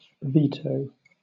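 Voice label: "veto", British English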